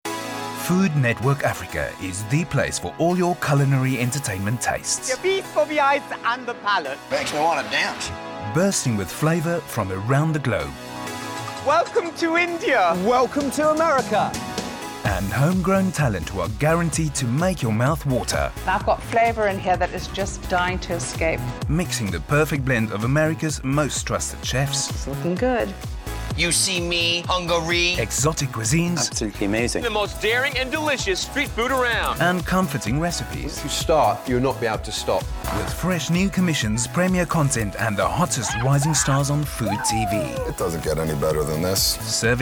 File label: male afrikaans and south african south african accent; food network africa